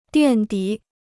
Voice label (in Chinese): "垫底", Chinese